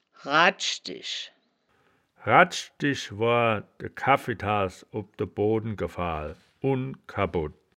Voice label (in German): "radsch disch", wittlich; das wittlicher woerterbuch; woerterbuch vertonung